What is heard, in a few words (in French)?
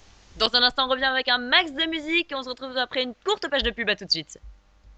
courte page de pub, jingle